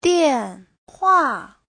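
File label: "diànhuà", Chinese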